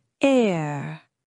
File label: "eə", phonetics sound